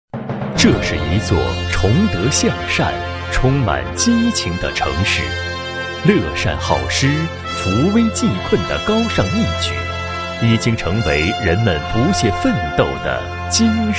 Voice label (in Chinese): 男 专题片 慈善事业发展纪实; 兰西县